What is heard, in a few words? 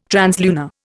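"translunar", Indian English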